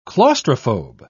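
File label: "claustrophobe", English, uk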